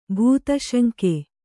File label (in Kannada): "ಭೂತಶಂಕೆ", Kannada